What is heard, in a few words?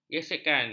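"gesekan", Malay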